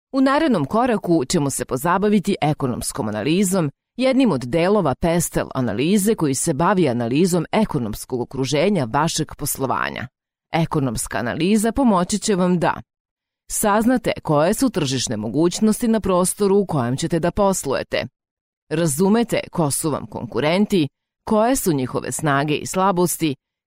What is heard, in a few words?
serbian e-learning